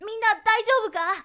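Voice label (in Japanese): "shonen minnadaizyoubuka", Japanese boy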